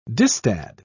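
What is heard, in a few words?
"distad", British English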